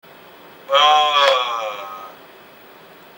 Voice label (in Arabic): Ö طويله, swedish